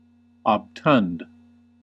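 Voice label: "obtund", US English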